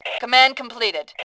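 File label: "completed", female voice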